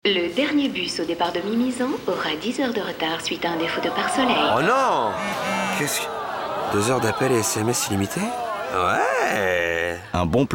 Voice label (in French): Radio; M6Mobile; LeBus